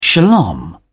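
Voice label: "shalom", British English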